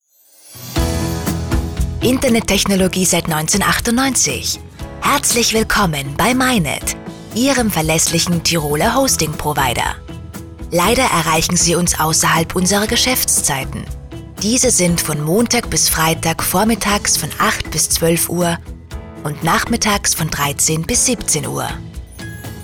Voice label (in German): Nachtansage; telefonansagen sonstige